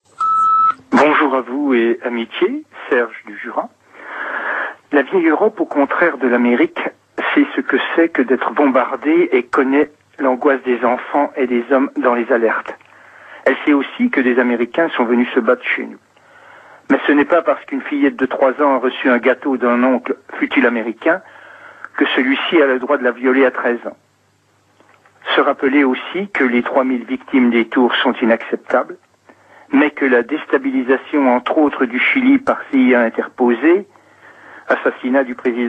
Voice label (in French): Irak USA repondeur